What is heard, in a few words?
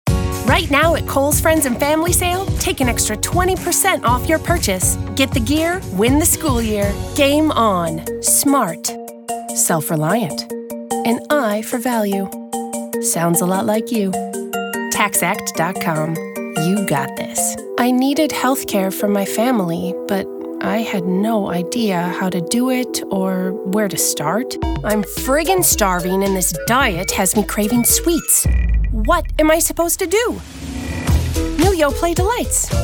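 female commercial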